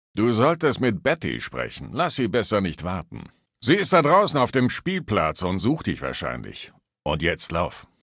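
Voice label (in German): Male adult greeting, German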